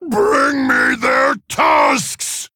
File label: Male Orc Attack